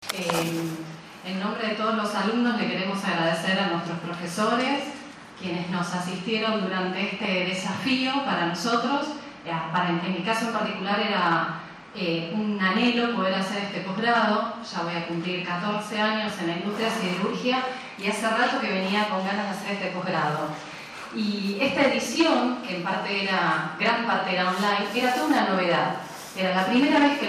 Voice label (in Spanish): ORADOR MUJER ALUMNA; acto academico de colacion; pos grados en siderurgia